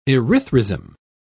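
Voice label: "erythrism", British English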